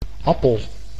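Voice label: "appel", Dutch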